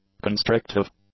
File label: "constrictive", English; adjectives